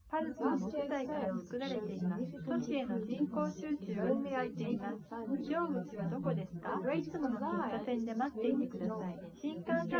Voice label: female liverec 250ms 5cm; SiSEC08; SiSEC underdetermined